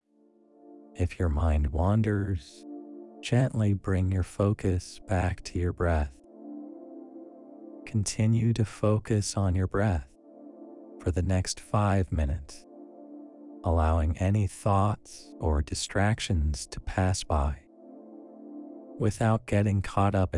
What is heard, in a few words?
Calm; Miles